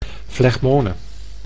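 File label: "flegmone", Dutch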